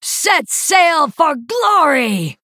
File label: Female Night Elf